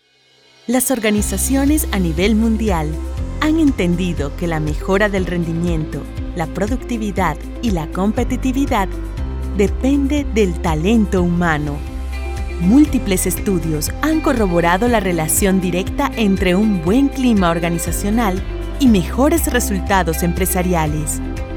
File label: Corporate Female Spanish (Latin American)